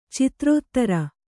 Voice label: "ಚಿತ್ರೋತ್ತರ", Kannada; citrōttara; noun; = ಚಿತ್ರಾಲಂಕಾರ.

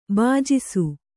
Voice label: "ಭುಜಿಸು", Kannada

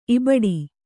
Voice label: "ಇಬಡಿ", kannada

